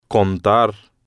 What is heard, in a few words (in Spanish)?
"contar con" Spanish